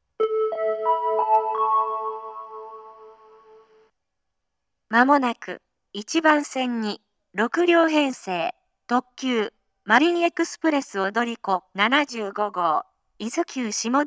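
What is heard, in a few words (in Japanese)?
接近放送 6両編成 特急 伊豆急下田行きの自動放送です。, 合成音声型 (女性）; 接近放送 6両編成 特急 マリンエクスプレス踊り子75号 伊豆急下田行きの自動放送です。; 合成音声になったことで、マリンエクスプレス踊り子でも列車名を案内するようになりました。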